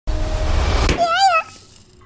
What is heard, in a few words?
High Pitched Yeah